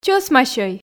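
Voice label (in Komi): "чӧсмасьӧй", Komi, female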